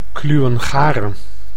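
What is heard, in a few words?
"kluwen garen", Dutch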